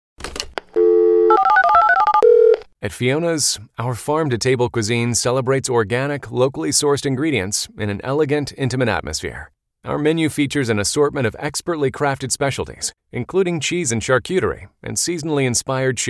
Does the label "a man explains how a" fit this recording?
No